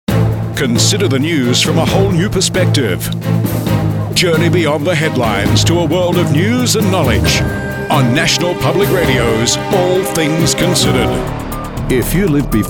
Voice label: Voice Over Commercial